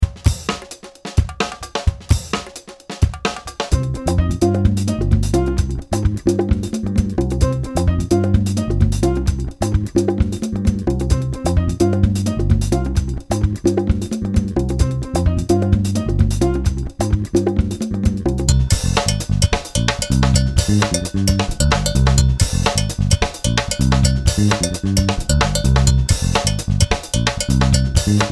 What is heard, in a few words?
keyboard rap fast